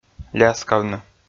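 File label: "ляскавны", Komi, male; kpv audio dict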